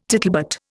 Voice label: "tittlebat", Indian

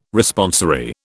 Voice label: "Responsory", British

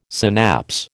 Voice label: "synapse", American

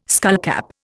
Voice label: "skullcap", Indian

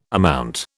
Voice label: "amount", British

